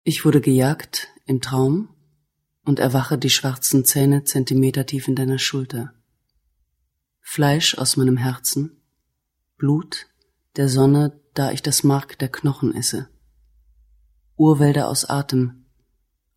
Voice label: monolog; bring mir den kopf von kurt kobain

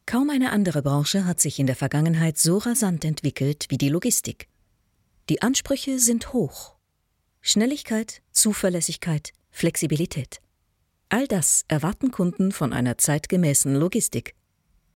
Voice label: Imagefilm sachlich selbstbewusst Logistik

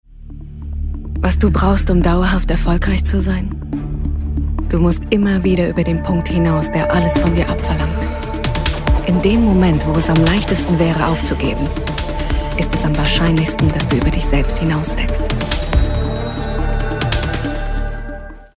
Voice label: DE Imagefilm; AHPMerkle

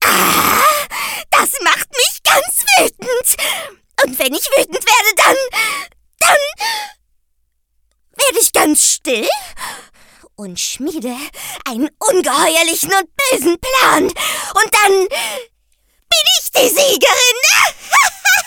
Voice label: Gamesrolle Hexe

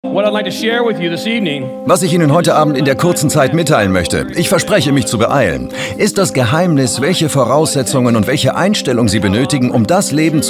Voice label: Dokumentation

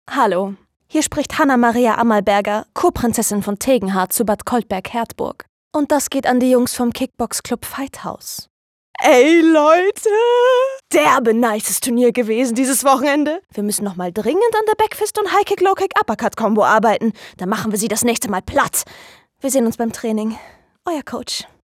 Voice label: Funkspot Charakter

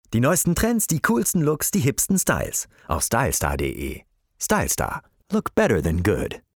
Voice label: Werbung mit englischem Slogan

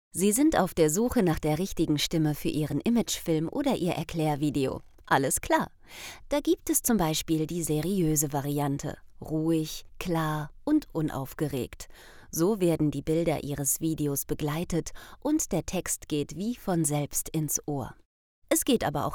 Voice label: Erklaervideo

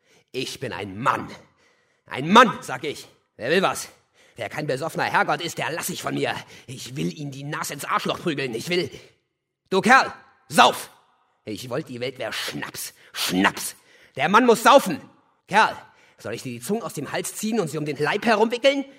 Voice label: Tambourmajor Hoerspiel; Woyzeck; Georg Buechner

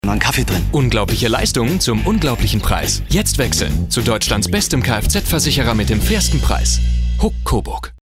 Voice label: TV Werbung; HUK

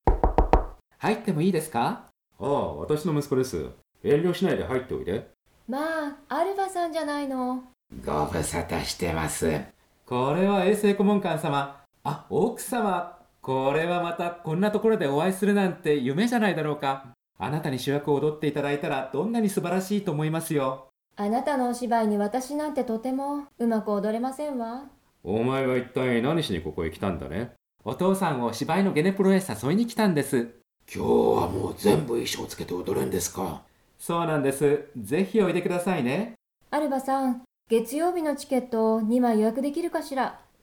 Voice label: Jp 3 Maenner Rollen Hoch Tief Alt; Okura; Erdgeist

